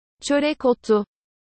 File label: Black seed turkish